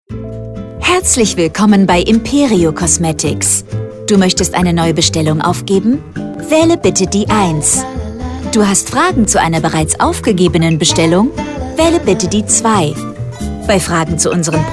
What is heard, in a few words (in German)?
ivr, telefonansage kosmetikstudio